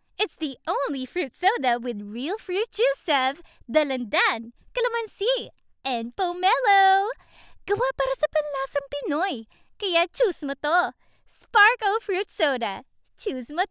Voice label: taglish commercial

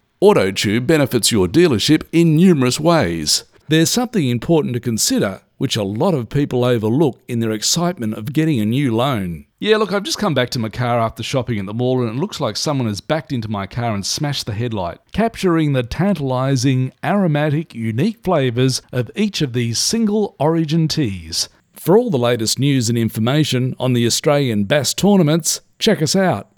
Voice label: Explainer instructional

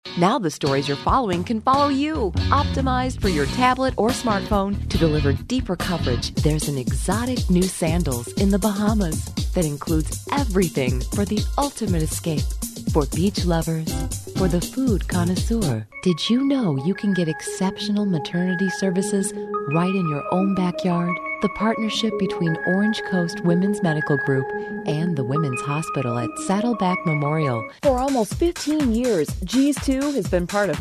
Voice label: Female Commercials

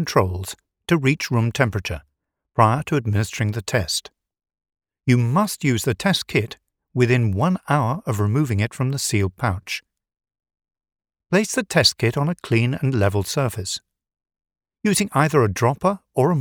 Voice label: covid19 explainer

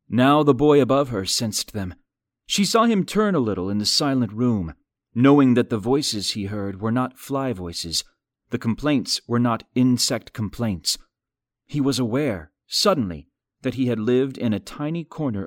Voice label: Audiobook Narration

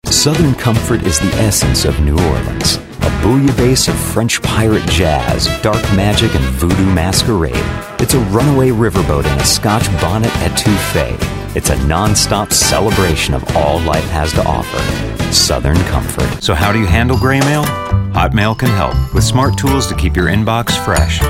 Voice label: Commercial VO

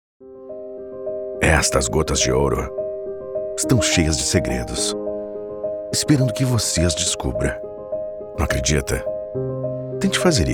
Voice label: Brazilian Portuguese Voice Over; Johnnie Walker

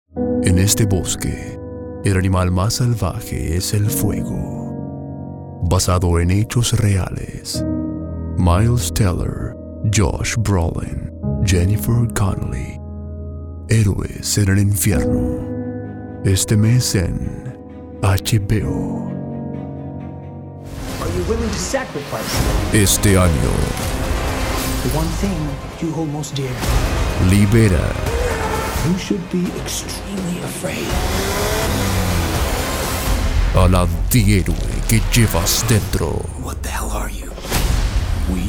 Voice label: Trailer

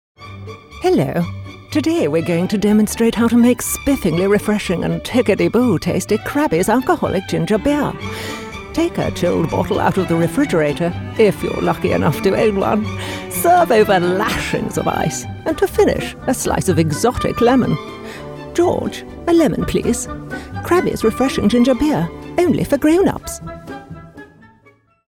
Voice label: Ad; English (North American)

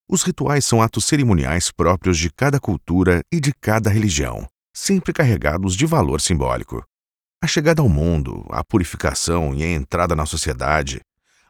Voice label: BR Portuguese; Os rituais; Discovery Channel

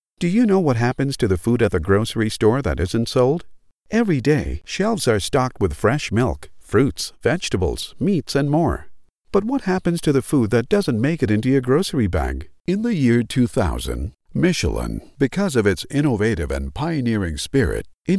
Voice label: Corporate

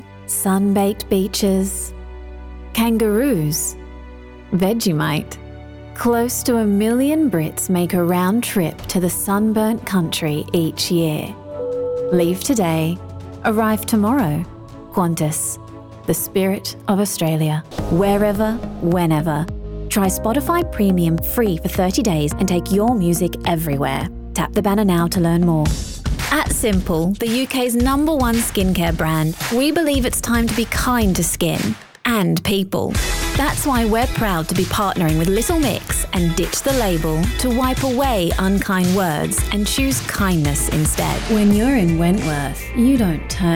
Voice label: UK

